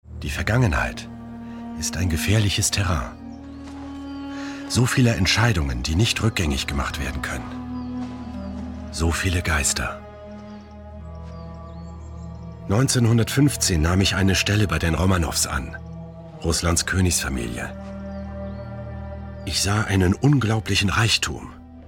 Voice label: german narration, German voice actor; Germany